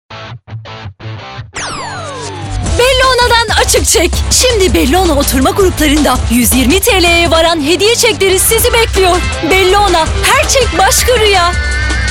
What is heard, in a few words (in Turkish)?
reklam; bellona